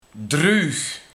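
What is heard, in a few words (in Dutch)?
"Druug" Dutch dialect; Droog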